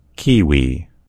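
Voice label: "kiwi", US English; gcompris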